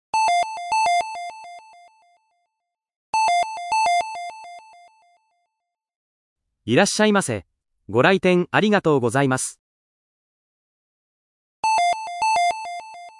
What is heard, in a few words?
chime voice welcome